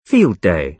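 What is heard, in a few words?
"field day", British English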